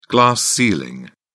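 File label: "glass ceiling", British English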